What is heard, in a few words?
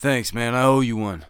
Thanks, Human Male; zps; HumanMale-01